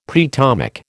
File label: "preatomic", American